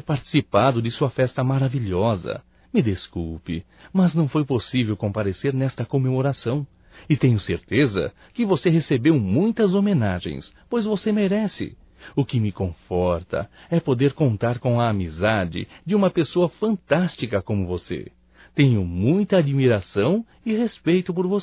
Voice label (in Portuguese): Aniversário Atrasado Amiga Masculino; Aniversarios; Telemensagens 2017